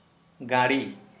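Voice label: Santali, male, monkey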